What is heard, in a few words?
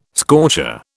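"scorcher", British English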